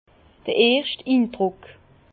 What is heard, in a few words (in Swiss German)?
"indruck", Bas Rhin; vin; première impression